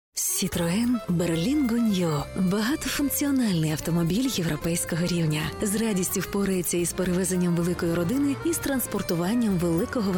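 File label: Ukrainian, female; Professionelle Sprecher und Sprecherinnen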